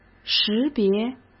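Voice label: "识别", Mandarin Chinese; hsk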